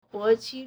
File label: "huo2 qi4", Chinese